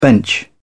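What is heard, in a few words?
"bench", British English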